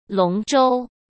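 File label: "龙舟", Mandarin Chinese; chinese-flashcards; hsk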